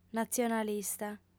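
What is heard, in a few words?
"natzionalista", Sardinian, female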